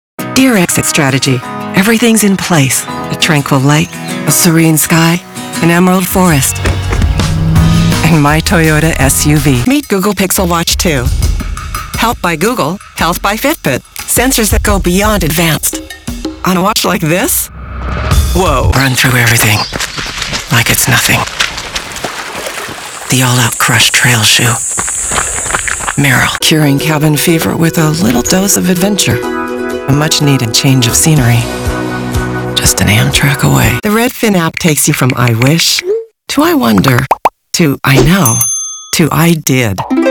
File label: Commercial voice